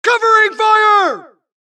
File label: supress, dialogue Allies; mohaa; Mission 2